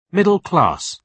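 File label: "middle class", British English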